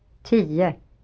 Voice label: "tio" Swedish

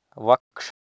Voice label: "vaksh", Sanskrit

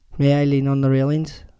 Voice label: belfast male; IViE; read sentences

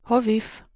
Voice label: "hoviv" Armenian

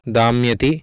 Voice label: "daamyati", Sanskrit

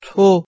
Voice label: "tu", Persian

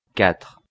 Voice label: "quatre", French

